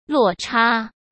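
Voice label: "落差", Mandarin Chinese; chinese flashcards; hsk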